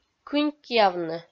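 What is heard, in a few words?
"кынькъявны", Komi-Zyrian, female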